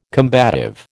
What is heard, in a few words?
"combative", American